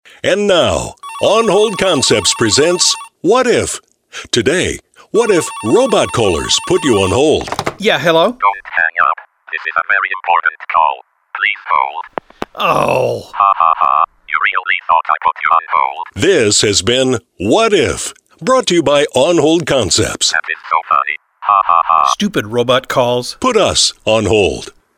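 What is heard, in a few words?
Robot Calls on hold; stereo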